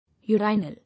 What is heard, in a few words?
"Urinal", English